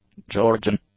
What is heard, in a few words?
"Georgian", english; adjectives